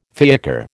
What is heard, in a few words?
"fiacre", American